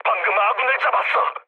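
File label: Korean player killed ally mood med, speech; War Thunder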